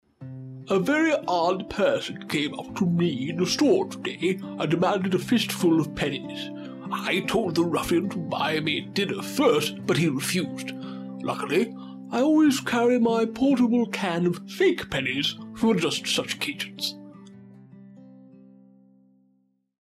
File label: Thick; Thick and Booming